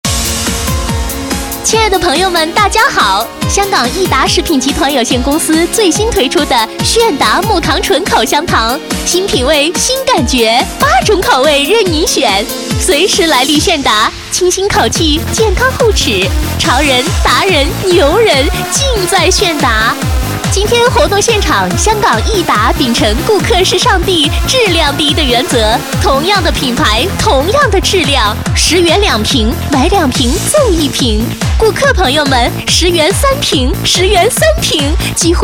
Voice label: girl, sugar; Chinese; reqingtianmei